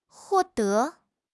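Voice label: "huo4 de2", Mandarin Chinese; HSK4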